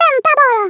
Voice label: "You'll regret that", Swedish, Speech; 11khz; International